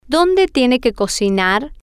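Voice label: where does she have to cook, Spanish, female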